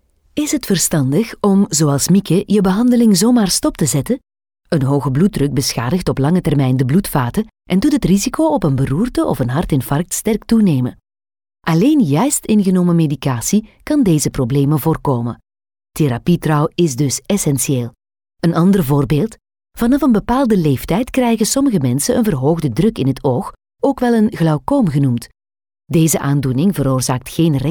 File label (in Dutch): E-learning Geneesmiddelen; Informationen